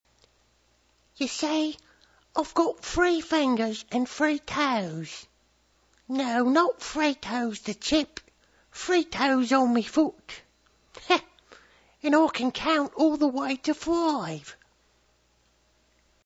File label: English Baby